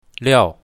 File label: "liao4", chinese, mandarin